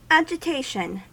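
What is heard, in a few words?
"agitation", American English; pronunciations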